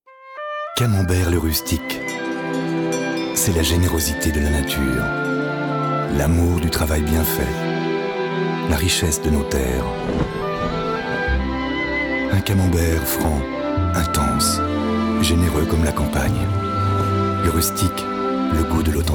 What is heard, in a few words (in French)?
authentique sensuel Rustique